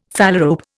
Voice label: "phalarope", Indian; Dictionary

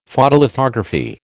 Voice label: "Photolithography", American

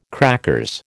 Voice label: "crackers", American

